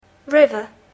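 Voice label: "river", English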